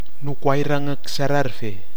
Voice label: "nukwai rangɨk sararve", Nafe (Kwamera); n my fist

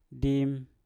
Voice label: "dim", Mpur; malam

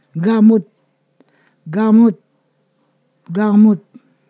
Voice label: "root", Ponosakan

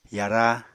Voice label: "yara", Apatani; Household Items

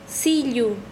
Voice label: "unya", Quechua Chanka

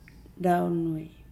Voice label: "coconut palm leaf", Nukuoro

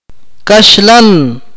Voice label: "chicken", Akateko

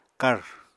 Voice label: "kàr", Apatani

